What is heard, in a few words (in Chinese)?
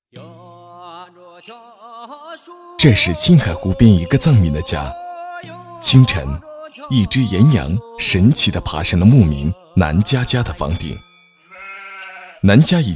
男 纪录片 自然守望